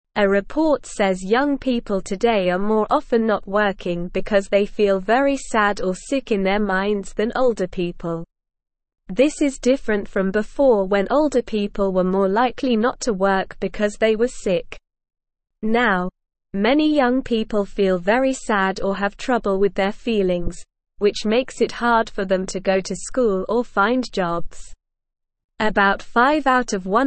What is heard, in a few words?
English Beginner SLOW Reading; Young People Feeling Sad and Not Working